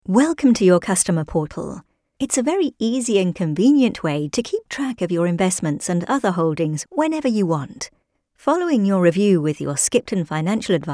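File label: English UK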